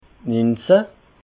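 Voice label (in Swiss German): "niinzehn", Alsatian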